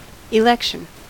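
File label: "election", US English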